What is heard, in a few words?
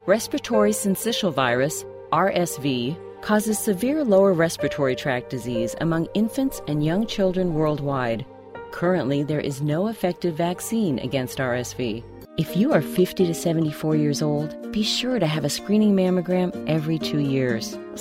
Medical Narration